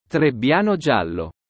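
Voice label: "Trebbiano Giallo", Italian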